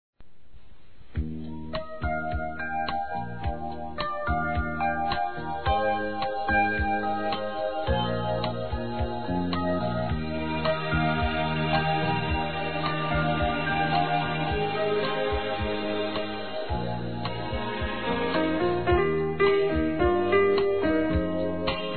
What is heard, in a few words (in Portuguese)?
Aniversário Comadre Masculino; Aniversários; Aniv Familiar